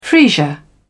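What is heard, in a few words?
"freesia", British English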